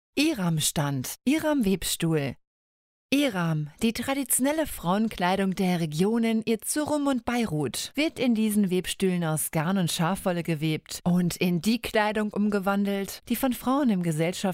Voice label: deutsch